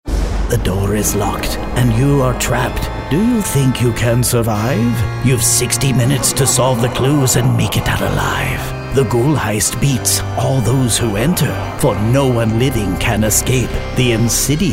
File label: character